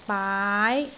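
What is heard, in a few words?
"baai2", Cantonese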